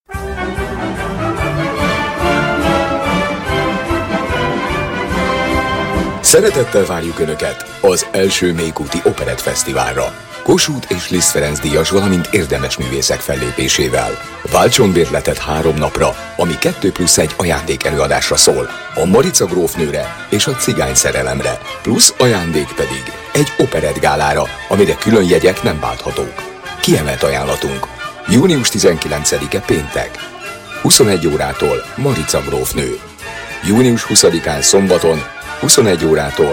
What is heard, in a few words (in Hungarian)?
szpot radio reklam; operett feszt